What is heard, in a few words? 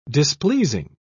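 "displeasing", British English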